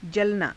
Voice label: "jalanaa", Hindi